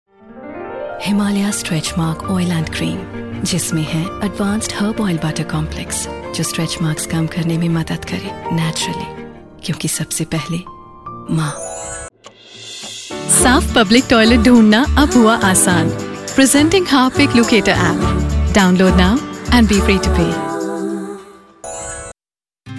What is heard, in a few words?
Hindi Commercial